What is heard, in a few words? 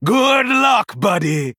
Male Troll Attack